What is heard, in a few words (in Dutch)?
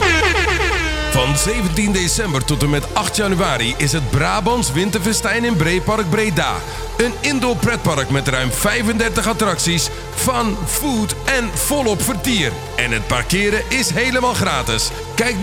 Radiospot; Brabants Winterfestijn 2020